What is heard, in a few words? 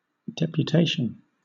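"deputation", British English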